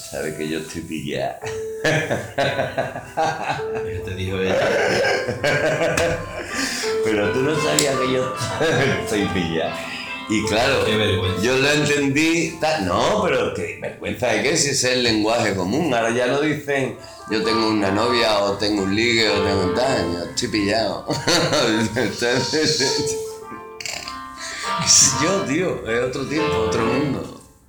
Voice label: Conversation Spanish